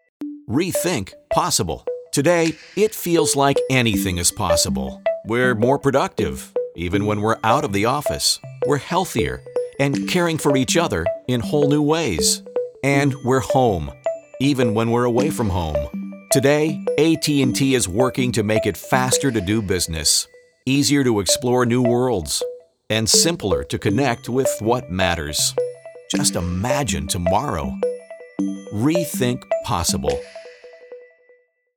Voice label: Spot